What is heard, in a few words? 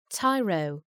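"tyro", English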